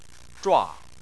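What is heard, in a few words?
"zhua" Chinese, fourth tone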